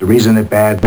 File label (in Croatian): glas, ADPCM 44 Khz, 4bit, stereo